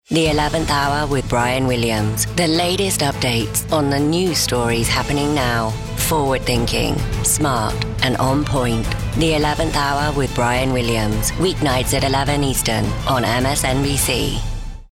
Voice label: en-US; msnbc